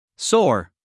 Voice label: "soar", us male; everyone-can-use-english